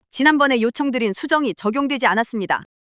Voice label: anger; monologue